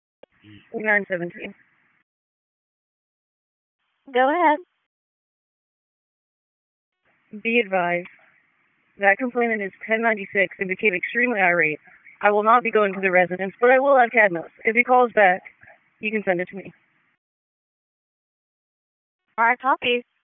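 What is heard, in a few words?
Sheriff's Office Dispatch 1, p25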